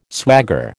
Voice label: "swagger", American